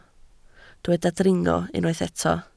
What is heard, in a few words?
"dringo", Welsh